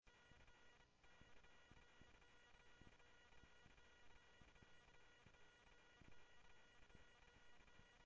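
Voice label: Sheriff Lake Patrol, scanner audio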